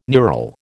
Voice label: "neural", American